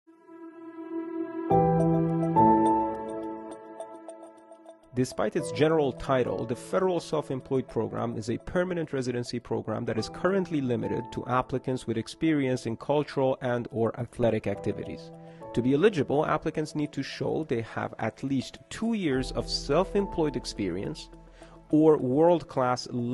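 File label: English, voices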